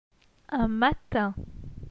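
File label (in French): "Un matin", French; phonetique; les voyelles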